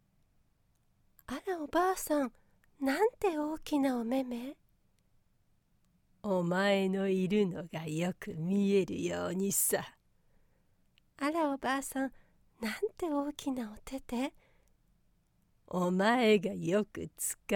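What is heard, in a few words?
Japanese, Characters; GoLocalise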